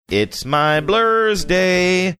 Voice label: saying its my blursday sing songy

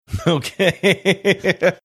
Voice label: saying okay laughing

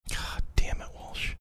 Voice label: quietly saying goddamn it

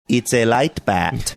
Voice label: saying it's a light bat funny manner

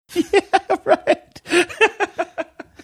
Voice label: laughing saying yeah right

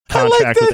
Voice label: saying i like that high pitched voice

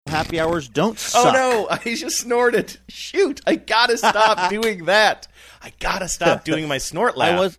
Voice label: snorting saying oh no i just snorted

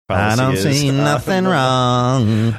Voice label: I don't see nothing wrong funny sing songy

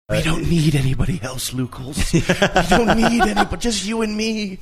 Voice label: quietly saying we dont need anybody else

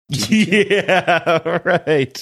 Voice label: laughing yeah right

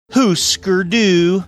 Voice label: Hüsker Dü exaggerated

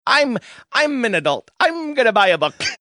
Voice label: saying im an adult im gonna buy a book funny

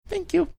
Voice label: cute thank you

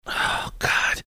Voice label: whispering oh god

